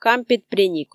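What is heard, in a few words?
"кампет-преник", Komi, female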